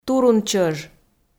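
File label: "турунчӧж", Komi, female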